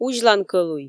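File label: "узьлан кӧлуй" Komi, female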